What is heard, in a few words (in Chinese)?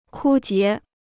"枯竭", Chinese